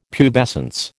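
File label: "pubescence", American English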